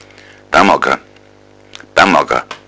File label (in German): baumac, bayerischen Dialekt; Flurnamen